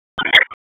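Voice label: EMS Dispatch; wake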